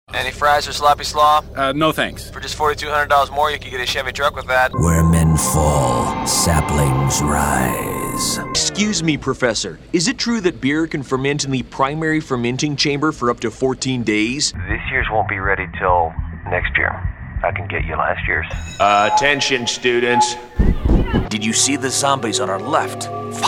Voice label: Character